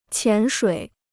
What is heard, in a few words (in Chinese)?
"潜水", Chinese